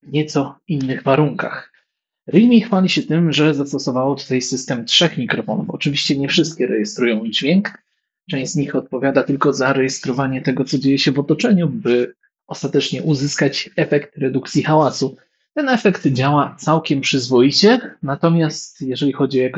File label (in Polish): nagranie, słuchawki; Realme buds air7; tanie sluchawki ale czy dobre recenzja realme buds air7